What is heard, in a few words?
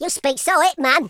"yes sir", Madchester